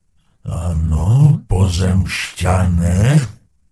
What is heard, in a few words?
Gnome Male Pissed; wowpreklad; czwow; GnomeVocalMale